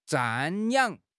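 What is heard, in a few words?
"瞻仰", Mandarin Chinese; HSK6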